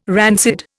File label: "rancid", Indian